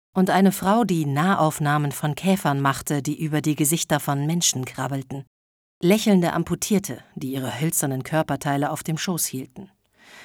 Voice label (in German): Hörspiel